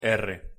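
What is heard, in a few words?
pronunciation "r", Spanish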